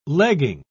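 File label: "legging", British English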